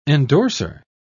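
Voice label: "endorsor", British English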